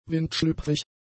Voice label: "windschlüpfrig", German; adjectives

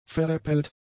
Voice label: "veräppelt", German; adjectives

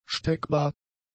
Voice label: "steckbar", German; adjectives

